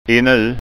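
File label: "inu", Scanian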